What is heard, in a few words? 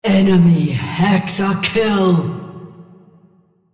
female Hexa Kill